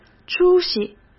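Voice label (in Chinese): "出息", Mandarin Chinese; chinese-flashcards; hsk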